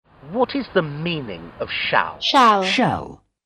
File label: shall (British)